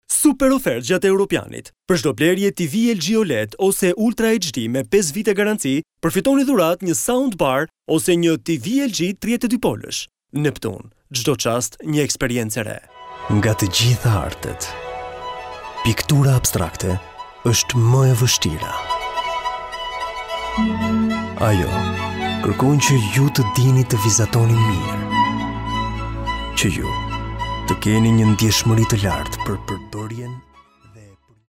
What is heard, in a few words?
male albanian voice over talent sales